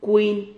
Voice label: "cuin", Silabas

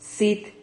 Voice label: "cit", Silabas

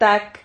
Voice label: "tac", silabas

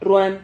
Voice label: "rruan", Silabas

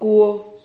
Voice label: "cuo", Silabas

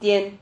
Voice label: "tihen", Silabas

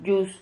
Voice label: "yus", Silabas

